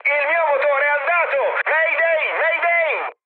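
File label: Italian damaged engine mood high, speech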